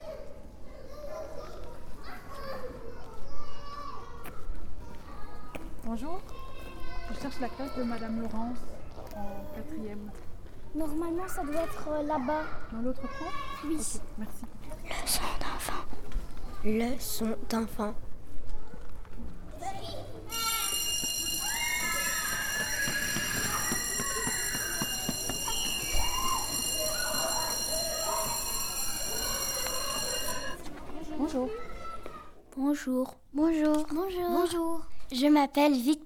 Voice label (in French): Message Accueil; Masnuy